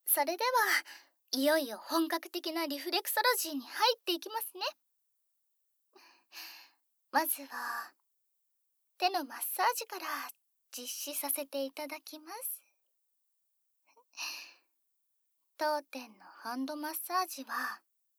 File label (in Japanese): 鼠蹊部を刺激するオイル回春マッサージ, ASMR; 勃起力回復間違い無し！